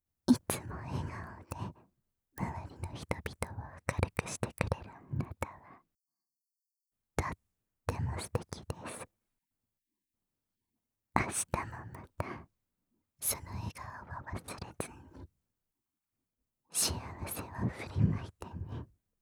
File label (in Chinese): 全肯定轻语音声